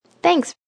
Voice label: Woman Thanks, A woman quickly says Thanks; Talking; Sound Effects:Voice